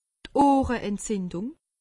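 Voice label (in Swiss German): "oohreentzindung", Alsatian; medecine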